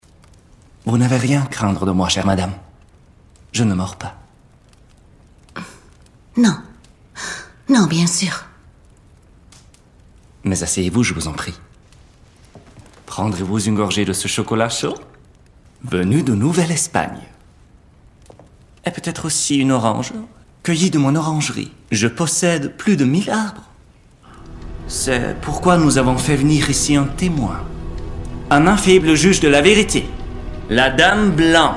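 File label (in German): French, Schauspiel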